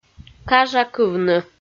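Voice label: "кажакывны", Komi, female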